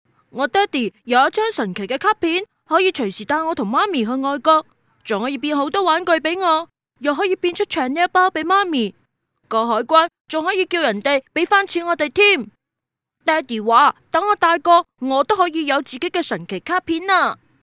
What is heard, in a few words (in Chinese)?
粤女 男童